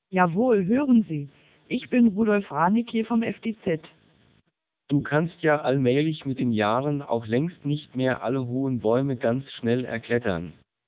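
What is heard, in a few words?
German short, speechsamples, MELPe 2400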